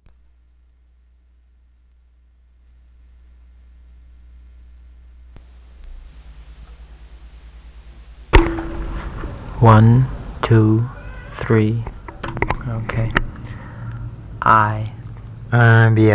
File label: Phunoi